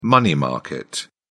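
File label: "money market", English, British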